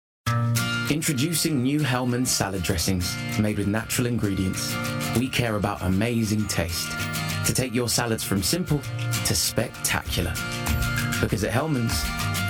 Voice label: Commercial, Male, Assured, Blokey, Bright, Conversational, Friendly, Warm; COMMERCIAL REEL; United Voices